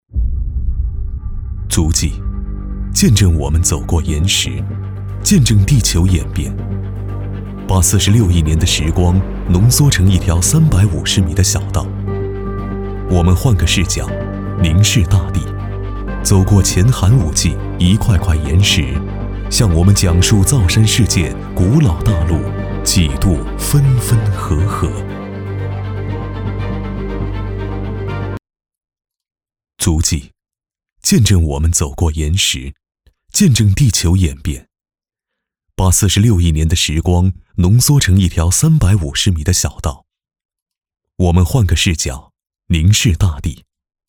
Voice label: 旁白神秘感

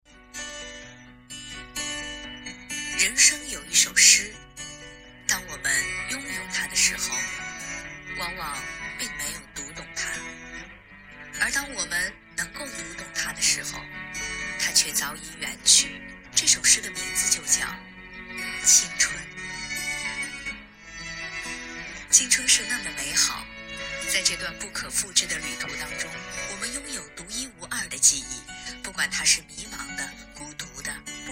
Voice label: 朗读者; 青春

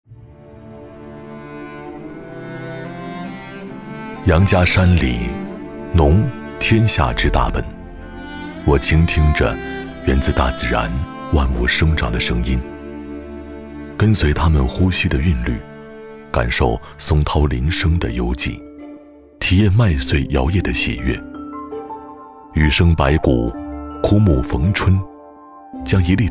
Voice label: 韵味 优雅; 杨家山里

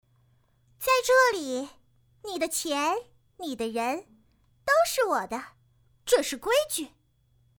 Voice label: 少女

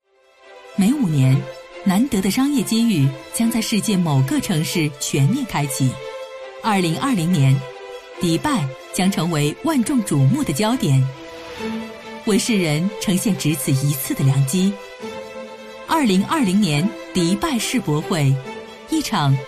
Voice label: 宣传片迪拜世博会开启片

